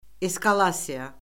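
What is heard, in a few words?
"eskalasiya", Azerbaijani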